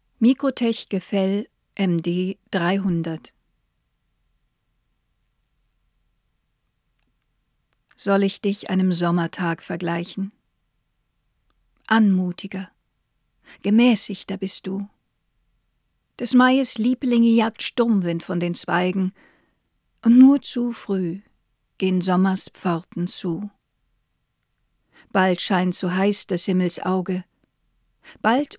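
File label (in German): microtech gefell md 300 sprache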